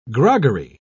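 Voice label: "groggery", British English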